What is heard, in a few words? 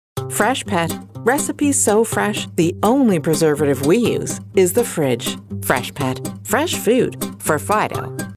female english canadian commercial; freshpet